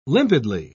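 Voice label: "limpidly", British English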